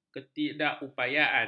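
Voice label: "ketidakupayaan", Malay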